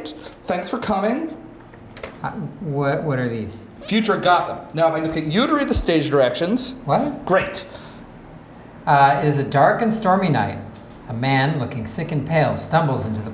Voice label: Yamaha Live Mix